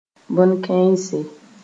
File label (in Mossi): "bõn-kãense", moore